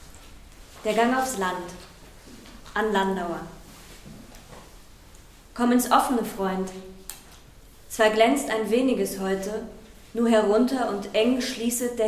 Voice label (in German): Sprecherin; Der Gang aufs Land